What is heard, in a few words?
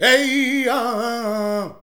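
voice, Foreigns; Sound & Vision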